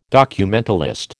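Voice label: "documentalist", American English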